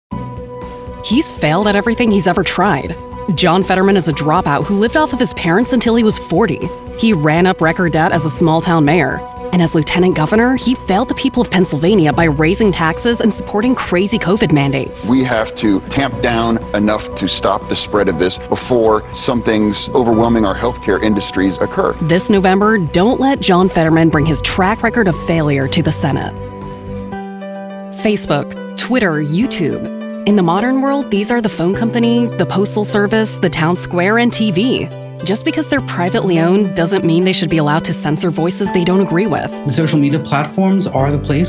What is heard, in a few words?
english american political ads